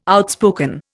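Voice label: "outspoken", Indian English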